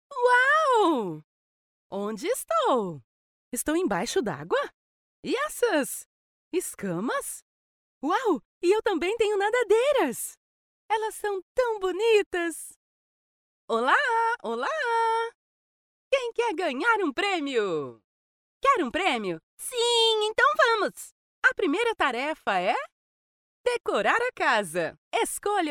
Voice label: portuguese brazilian animation